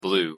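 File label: "blue", English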